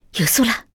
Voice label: 偷听对话; c02 6偷听对话 李氏

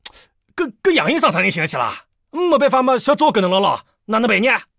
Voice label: 偷听对话 癞子

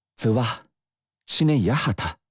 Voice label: 教唱歌

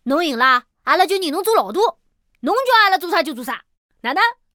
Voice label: 小男孩

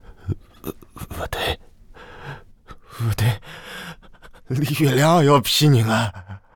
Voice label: 疯子, peiyin

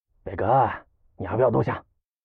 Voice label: 偷听对话 癞子

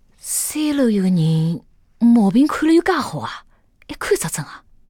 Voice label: 上海人A

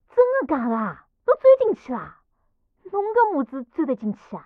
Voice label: 偷听对话; c02 6偷听对话 李氏

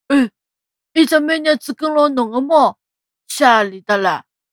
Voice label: 小男孩 问猫

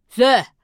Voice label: 胖小孩倒数

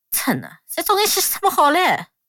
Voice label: 吐槽; 李氏

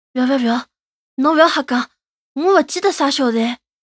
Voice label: 男孩 对话

